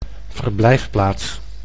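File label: "verblijfplaats", Dutch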